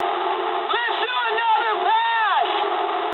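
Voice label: bomb failure mood high, speech English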